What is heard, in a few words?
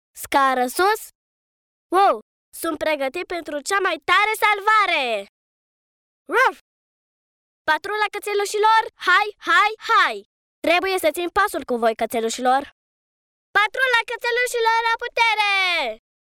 B 6 ani dubbing